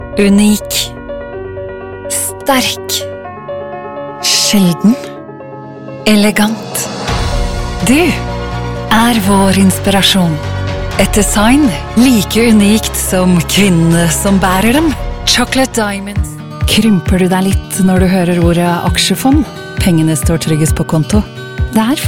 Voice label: Norwegian